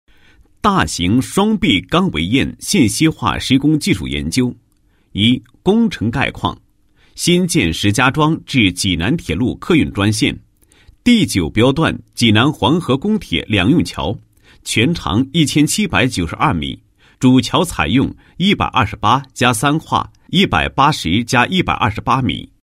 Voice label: 男 解说词; 大型双壁钢围堰信息化施工工法

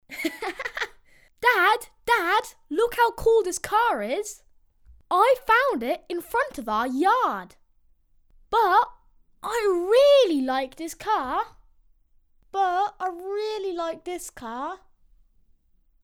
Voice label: 英语童声男 可爱童声 12岁 英式

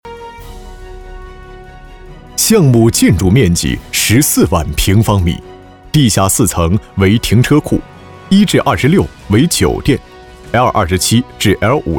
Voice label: 男 工程解说; 恒隆酒店和公寓楼项目BIM宣传视频文本

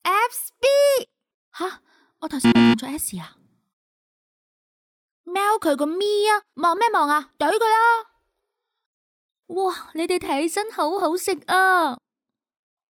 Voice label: 女 粤语 游戏卡通

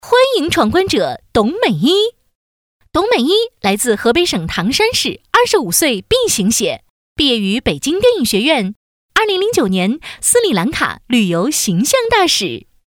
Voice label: 女 栏目配音 选手配音

